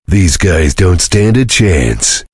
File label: cheer; svencoop; voice commands; Duke